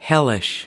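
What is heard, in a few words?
"hellish", American English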